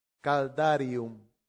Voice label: "caldarium", Latin; santillana